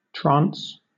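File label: "trance", British English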